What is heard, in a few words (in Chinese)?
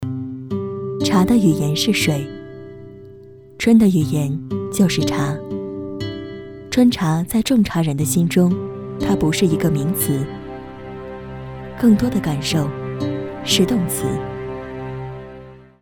女 记录片 春茶